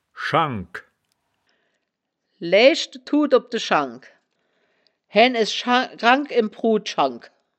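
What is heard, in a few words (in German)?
"schangk", wittlicher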